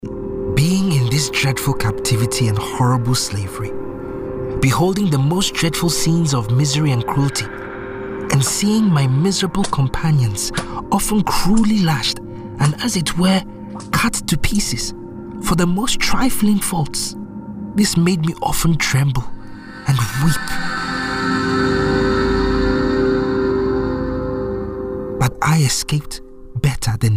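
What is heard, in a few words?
british african natural, male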